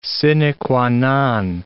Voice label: "sine qua non", English